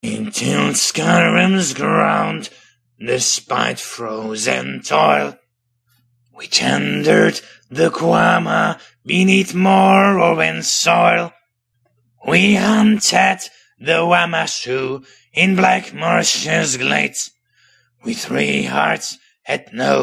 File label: dunmer, dark elf voice; morrowind; three heats as one